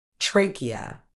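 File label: "trachea", British English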